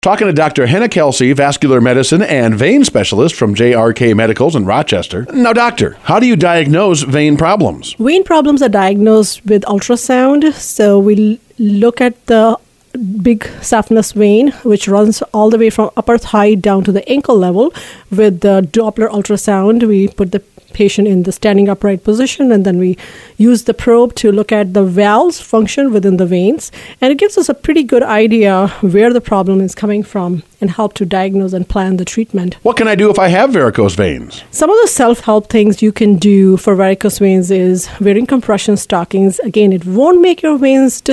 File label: INTERVIEW; JRK Medicals